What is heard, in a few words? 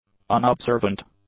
"unobservant", English; adjectives